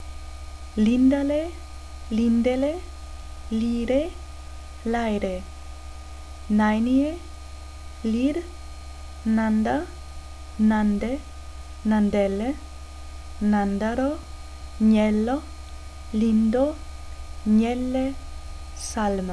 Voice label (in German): musik poesie